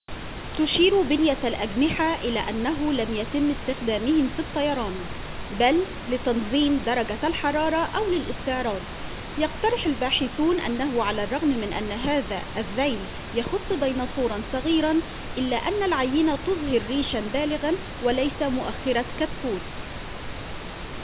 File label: pink noise, denoiser; speech platform 4